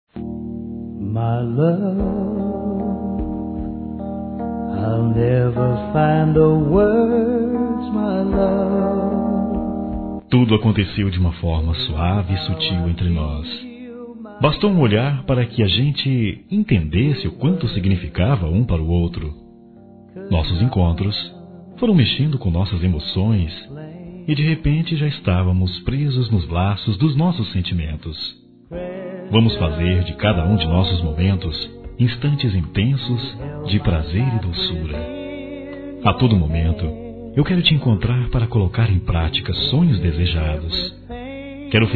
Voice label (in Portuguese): Forte Masculino, Telemensagens Românticas